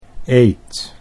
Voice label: "eet", Dutch